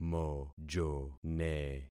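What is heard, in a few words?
"mojone", concatenated syllables; Affixing experiment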